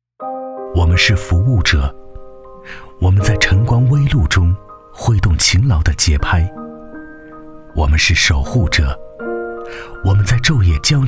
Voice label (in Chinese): 男 旁白 温暖 走心; 守护者